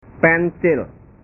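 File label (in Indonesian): "pensil", Indonesian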